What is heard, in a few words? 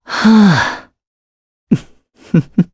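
vox trs Japanese; Pavel; kingsraid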